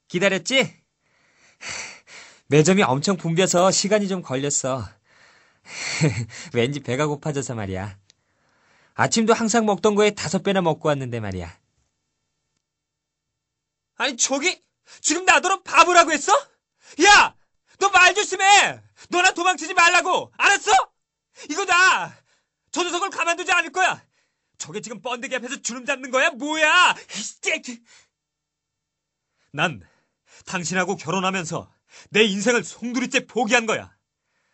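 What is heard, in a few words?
Korean male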